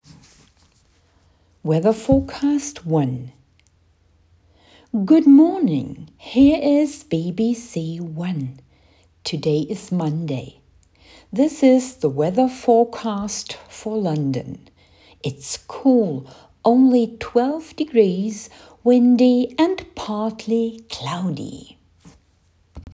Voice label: weather forecast